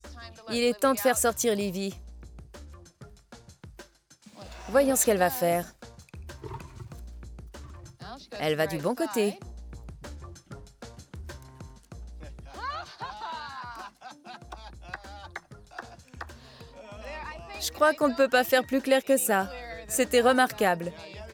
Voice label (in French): voix superposée